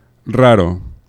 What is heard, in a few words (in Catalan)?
"raro", vibrants; laboratori fonetica